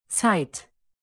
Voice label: zeit, alphabet de native

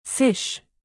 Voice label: fisch, native; alphabet de

